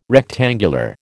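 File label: "rectangular", American English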